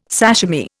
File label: "sashimi", Indian